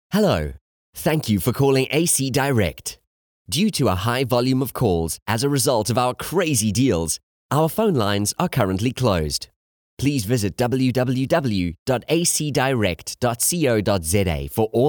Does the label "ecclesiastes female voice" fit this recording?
No